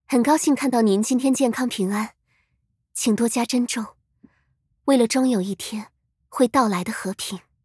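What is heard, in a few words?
voice Chinese welcome; Daphne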